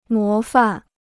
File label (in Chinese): "模范", Chinese